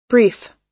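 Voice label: "brief", US English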